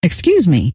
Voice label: excuse me, scientist female